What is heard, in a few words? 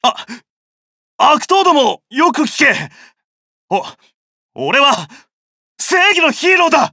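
start, Japanese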